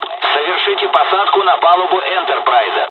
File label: landing, dialogs russian; War Thunder